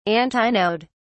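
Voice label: "antinode", British English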